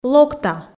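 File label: "локта", Komi, female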